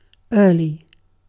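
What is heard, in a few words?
"early", British English; pronunciation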